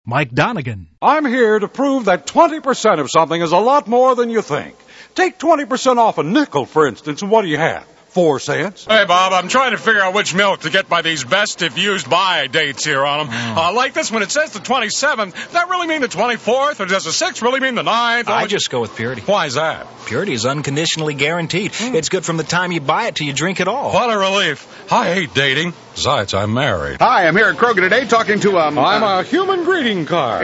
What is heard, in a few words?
male; Spotland Productions